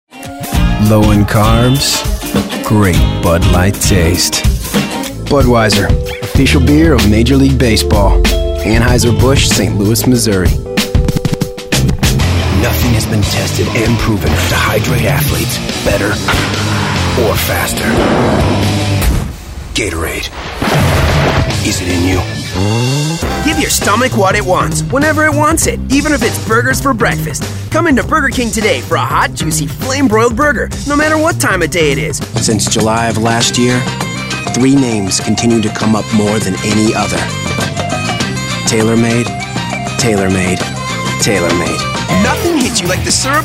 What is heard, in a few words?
commercial